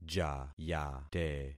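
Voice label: "jayate", concatenated syllables; Affixing experiment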